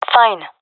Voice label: affirmation, gendarmerie fem; vo; agents

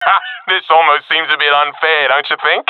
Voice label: round winning, professional epic; vo; Counter Strike Model Pack; CS:GO

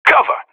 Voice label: cover me, professional; vo; Counter Strike Model Pack; CS:GO

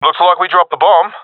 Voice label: sees dropped bomb, professional epic; vo; Source Model Packs; Counter Strike Model Pack; CS2

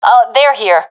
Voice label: sees enemy, professional fem; vo; Counter Strike Model Pack; CS:GO

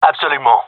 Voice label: agree, gendarmerie male; vo

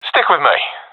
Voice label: request follow me, professional epic, agents; vo; Source Model Packs; Counter Strike Model Pack; CS2

